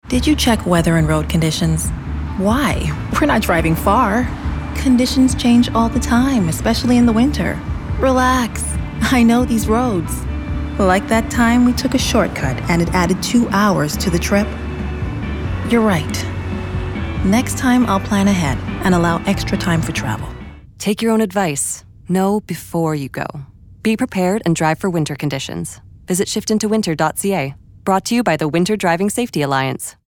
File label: Radio Spot; Know Before You Go